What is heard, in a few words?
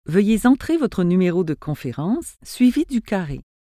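Conference get Number, canadian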